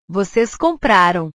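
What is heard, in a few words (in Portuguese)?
"vocês compraram", Portuguese; portugues/verbos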